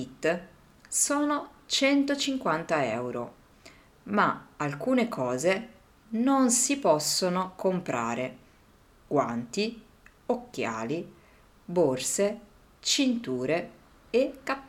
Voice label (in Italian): kit vestiario, italiano